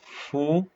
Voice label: short voyels arabic alphabet